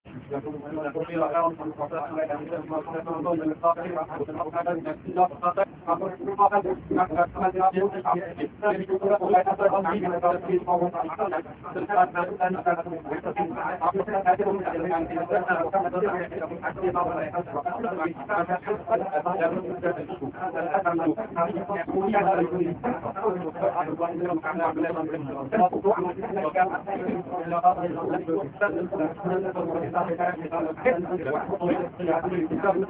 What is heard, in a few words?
reciting quran, Arabic; Pondering and reflecting; Kano; Ramadan 1438